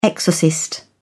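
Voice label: "exorcist", British English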